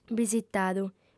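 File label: "bisitadu", lingua sarda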